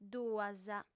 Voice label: "duas", audiofem

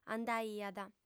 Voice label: "andaiat", audiofem

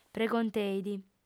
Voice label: "pregonteit", audiofem

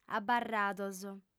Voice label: "abarrados", audiofem

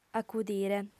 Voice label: "acudire", audiofem

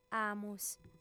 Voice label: "amus", audiofem

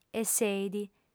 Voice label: "esseit", audiofem

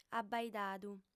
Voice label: "abaidadu", audiofem

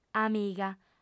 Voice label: "amiga", audiofem

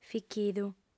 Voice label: "fichidu", audiofem

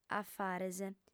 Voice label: "afares", audiofem

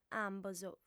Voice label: "ambos", audiofem

